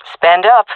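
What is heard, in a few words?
request spend, female voice; player vo jungle fem; Counter Strike Model Pack; CS:GO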